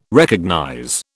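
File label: "recognize", British English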